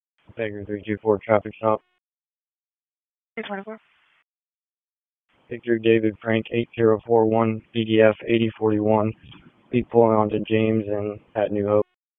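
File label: Police Northeast District Dispatch, p25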